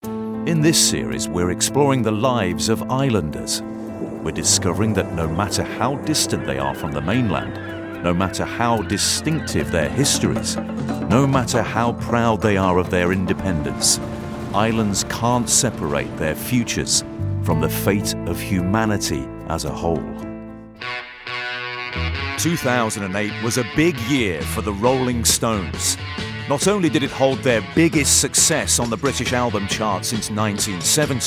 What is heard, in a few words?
Narration